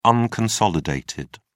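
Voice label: "unconsolidated", British English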